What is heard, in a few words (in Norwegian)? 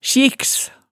"sjikks", hemsedalsmaalet